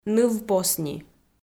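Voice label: "нывпосни", Komi, female; audio dict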